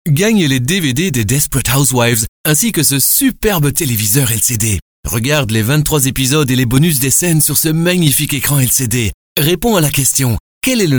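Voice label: French, male, commercial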